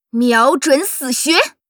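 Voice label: Dryad yes attack, Chinese; 暗夜精灵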